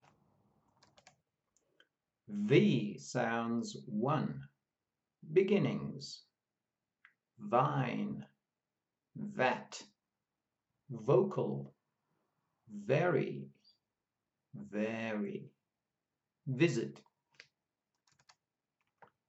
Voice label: V sounds, pronunciation of australian english